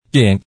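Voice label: "yèn" Hakka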